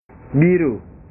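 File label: "biru", Indonesian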